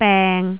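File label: "beng3", Cantonese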